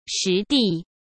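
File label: "实地", Mandarin Chinese; chinese flashcards; hsk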